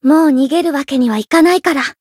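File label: variable chant, Japanese; saki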